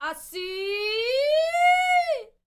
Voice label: MEX FEMALE, World Voices; ILIO; Vocal Planet VOL-5